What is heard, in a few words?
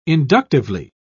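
"inductively", British English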